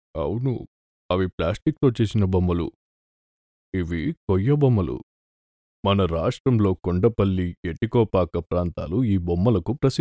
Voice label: male telugu voice over talent character